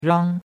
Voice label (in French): "rang1", chinois; Cours de Chinois